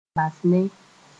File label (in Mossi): "bas ne", moore; entrust to somebody